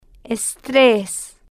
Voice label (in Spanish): "es-tres", Spanish